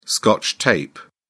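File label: "scotch tape", British English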